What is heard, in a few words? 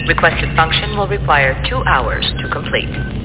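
"hours", English; os2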